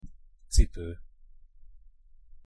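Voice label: "cipo", Hungarian pronunciation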